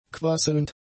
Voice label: "quasselnd", German; adjectives

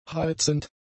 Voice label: "heizend", German; adjectives

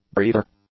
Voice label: "breather", English; nouns

